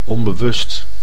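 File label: "onbewust", Dutch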